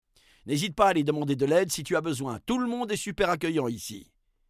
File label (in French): narrateur; La-Fabrik; ordre demande de laide